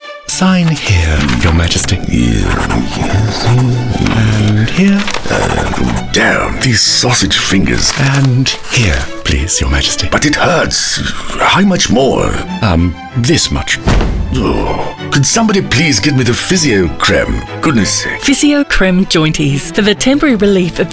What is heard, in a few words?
male australian english king charles impression; nine network; devine voices